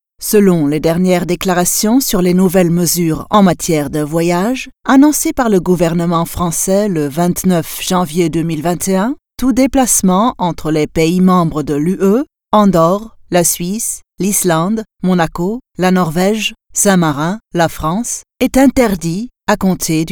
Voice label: french commercial